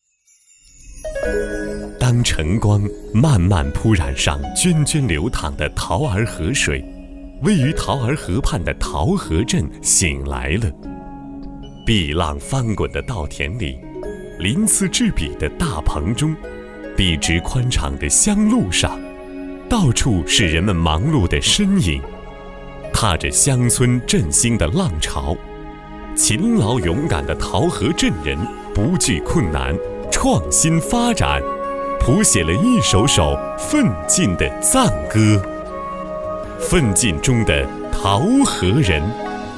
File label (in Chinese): 男 专题 村镇介绍 大气介绍